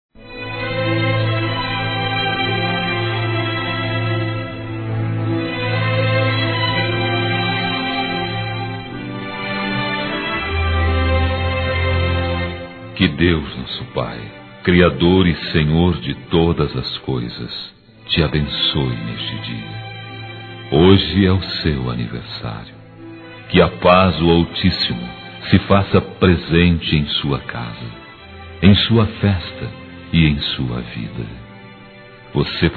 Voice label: Neutro Católico masculino

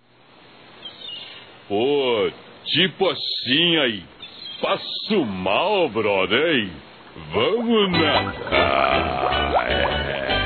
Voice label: Aniversário Irmão Feminino; Aniversário Infantil; Telemensagens

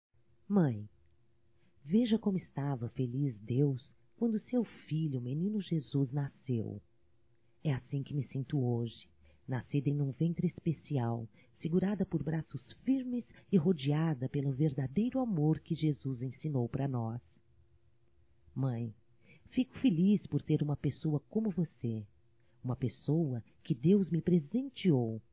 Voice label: NATAL ANO NOVO MAE (F); Telemensagens 2017

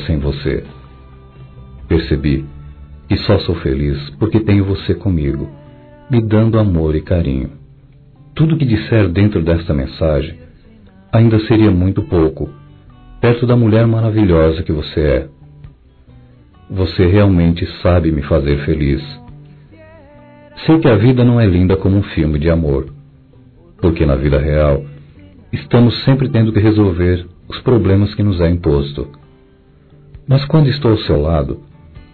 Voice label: Meu Anjo Masculino, Telemensagens Românticas

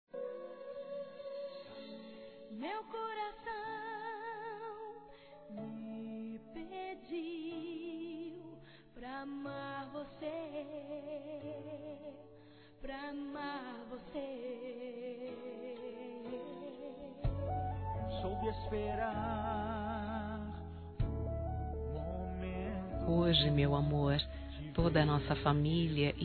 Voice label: NATAL ROMANTICO F; Telemensagens; Natal e Ano novo; fem

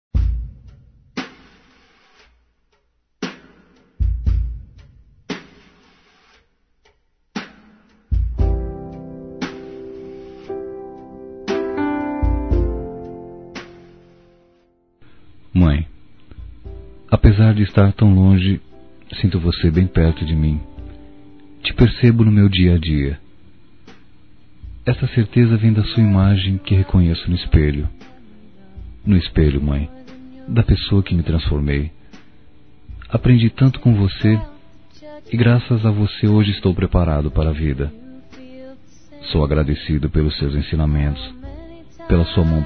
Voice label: Dia das Mães Distante Masculino; Telemensagens; Telemensagens 2017

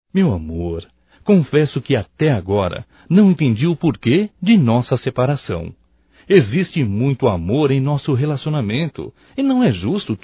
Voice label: RECONCILIAÇAO, Telemensagens, Românticas, masc

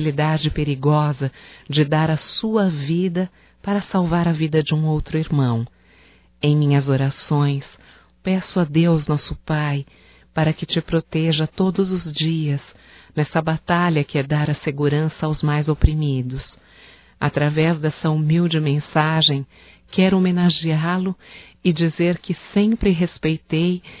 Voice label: HOMENAGEM AO POLICIAL MILITAR (F), Só Voz; Telemensagens; HOMENAGENS

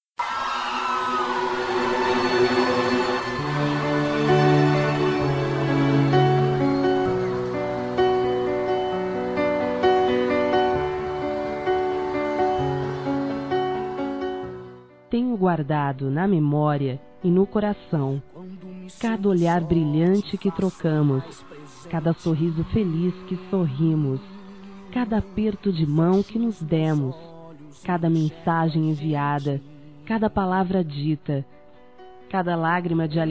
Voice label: ROMANTICA, Telemensagens Românticas fem; Telemensagens 2017

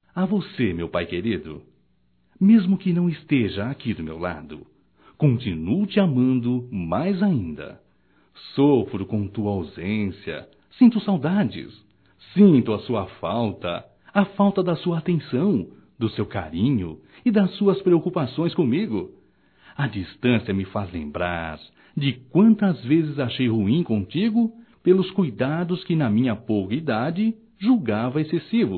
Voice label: Dia dos Pais Distante Masculino

